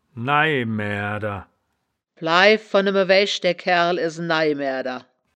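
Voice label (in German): "nai maeaerder", Wittlicher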